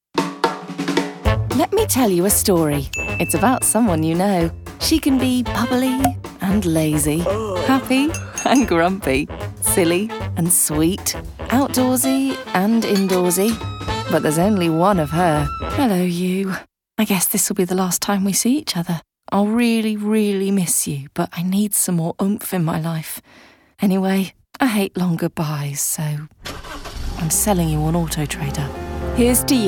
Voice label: commercial